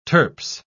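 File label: "turps", British English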